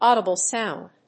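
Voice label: "audible sound", English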